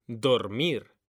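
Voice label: "DORMIR", Spanish; mekanta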